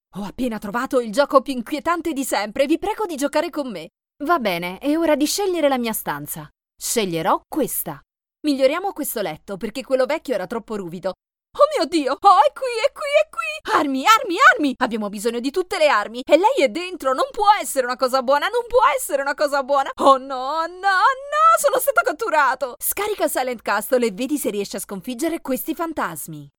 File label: Character voiceover